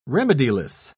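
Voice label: "remediless", British English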